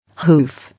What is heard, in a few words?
"Hoof", English